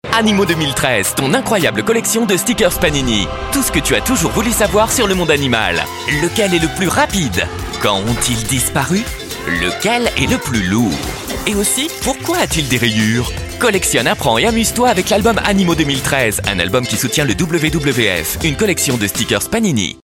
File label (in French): pechu enthousiaste, voix off jeunesse; PANINI